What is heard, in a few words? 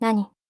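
"nani", Japanese; rin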